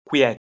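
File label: "kwieh1", Proto-Indo-European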